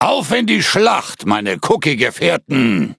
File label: loading, de; cookie run